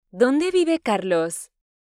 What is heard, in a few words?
Spanish Listening; Spanish Test